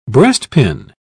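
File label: "breastpin", British English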